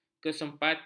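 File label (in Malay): "kesempatan", Malay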